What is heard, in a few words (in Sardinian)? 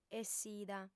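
"essida", female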